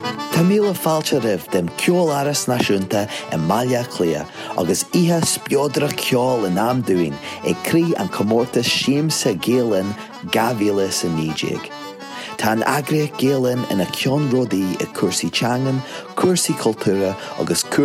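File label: GA General, Irish; GoLocalise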